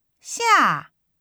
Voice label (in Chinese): "下", Chinese